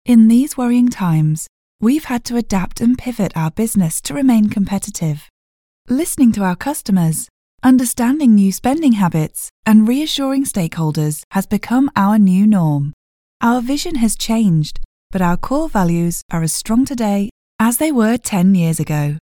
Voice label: Commercial

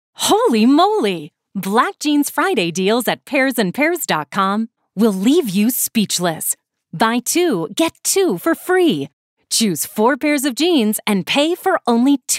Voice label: Commercial

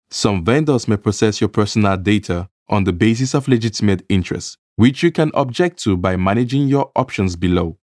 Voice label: Infografika ENG

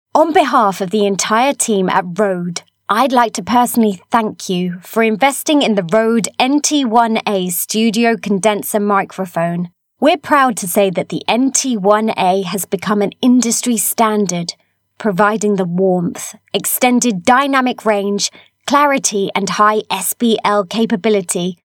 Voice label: Commercial

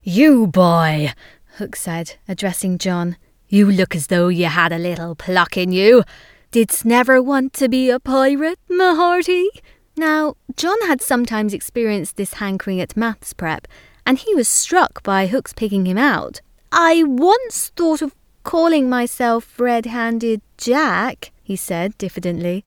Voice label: Audiobook

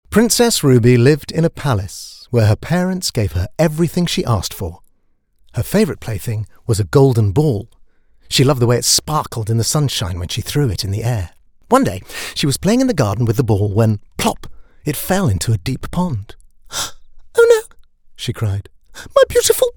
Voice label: Audiobook

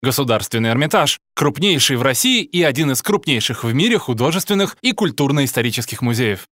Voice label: RUS

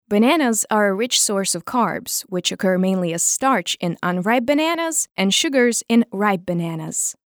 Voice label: eng

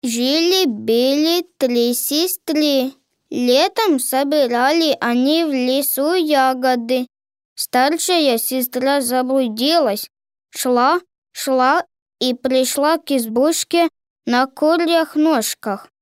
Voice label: RUS

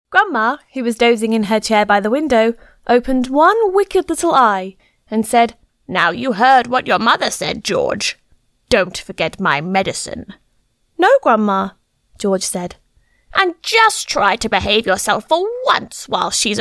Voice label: Audiobook

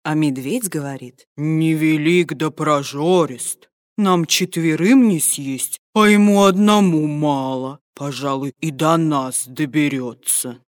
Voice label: RUS

